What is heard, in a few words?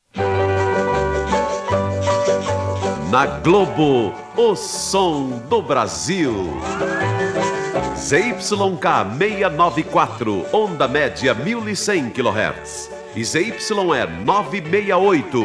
Radio 1100kHz; Radio Globo SP